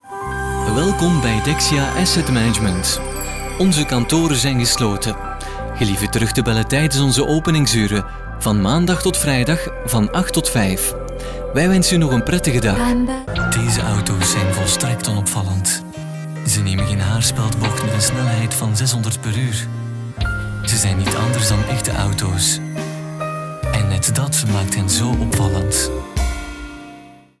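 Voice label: Flemish narration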